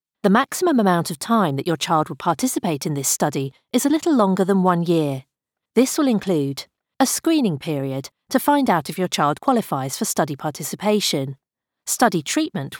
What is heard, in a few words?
EXPLAINERS clinical trial kangaroo study